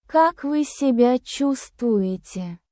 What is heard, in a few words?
russian phrases